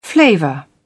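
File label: "flavour", British English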